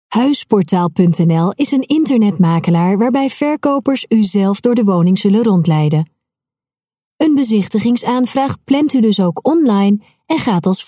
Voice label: voice over